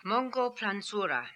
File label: "Mongolplanzura", pronounciations; Fourth Way; Beelzebub